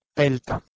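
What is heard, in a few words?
"peltH", Proto-Indo-European; eastern origins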